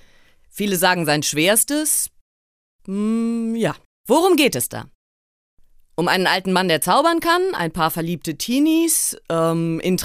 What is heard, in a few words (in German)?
Leseprobe; stimme